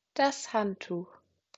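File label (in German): "Handtuch", German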